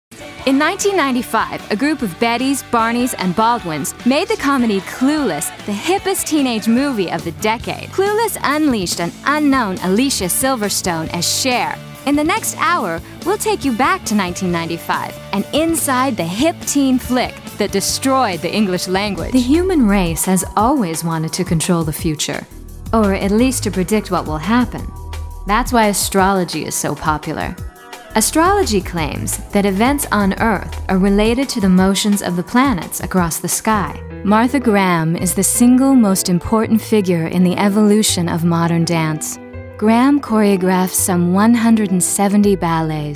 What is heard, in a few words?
Broadcast Narration